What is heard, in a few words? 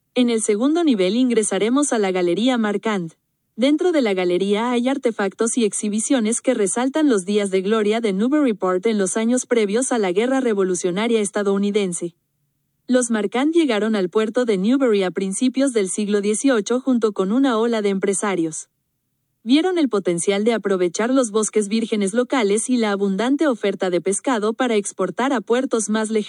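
spanish, audio tour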